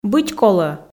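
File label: "быть колӧ", Komi, female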